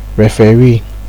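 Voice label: "referee", Nigerian Pidgin, male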